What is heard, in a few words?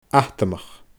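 "atamach", Scottish Gaelic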